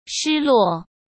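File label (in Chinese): "失落", Mandarin; chinese flashcards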